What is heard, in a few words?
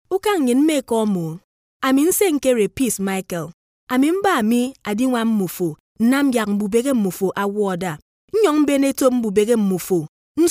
Ibibio